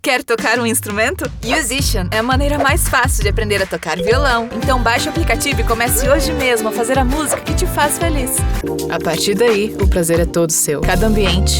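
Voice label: commercial many styles female brazilian portuguese voiceover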